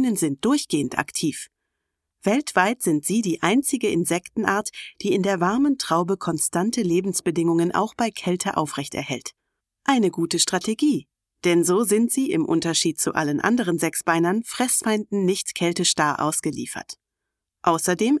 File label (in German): Doku Bienen